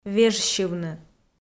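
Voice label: "вежсьывны", Komi, female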